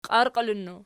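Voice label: "qiarqiilinnu" nominative, Archi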